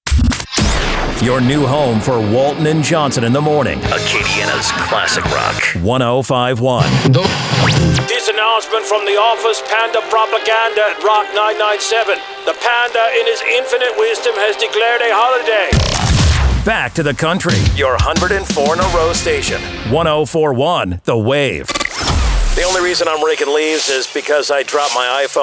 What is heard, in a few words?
imaging VO